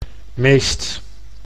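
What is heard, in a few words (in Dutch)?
"meest" Dutch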